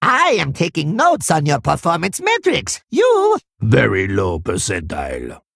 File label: Male Kobold; Togwaggle